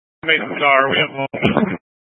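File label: Incident Tac 1, Scanner audio recording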